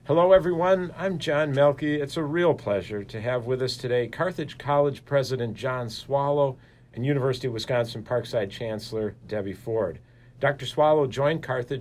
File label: interview; Chancellor; Parkside